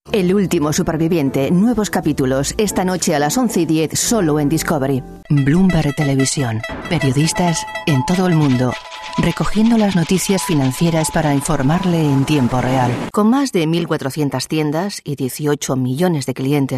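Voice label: Spanish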